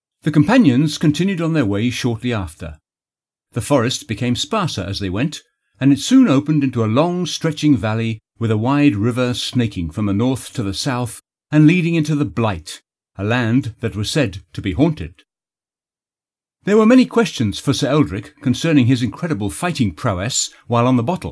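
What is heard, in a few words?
audio books, English; England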